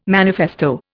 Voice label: "manifesto", Indian

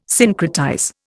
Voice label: "syncretize", Indian

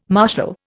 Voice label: "martial", Indian

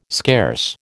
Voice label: "scarce", American

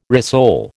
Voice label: "rissole", American

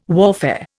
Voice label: "warfare", Indian

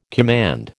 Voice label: "command", American

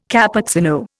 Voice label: "Cappuccino", Indian

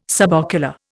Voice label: "subocular", Indian

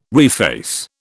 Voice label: "reface", British

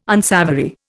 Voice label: "unsavoury", Indian

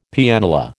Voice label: "Pianola", American

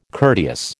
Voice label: "courteous", American

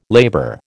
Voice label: "labour", American

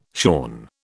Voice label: "shorn", British

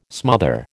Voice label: "smother", American

